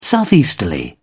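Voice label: "southeasterly", English, British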